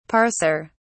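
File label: "parser", British English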